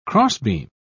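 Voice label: "crossbeam", English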